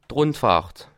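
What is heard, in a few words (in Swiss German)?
"rundfahrt", Bas Rhin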